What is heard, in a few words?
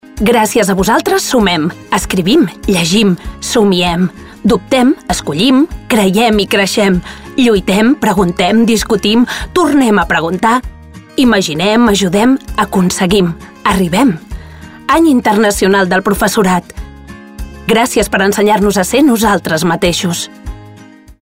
female catalan teacher comercial